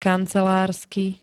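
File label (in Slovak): "kancelársky", Slovak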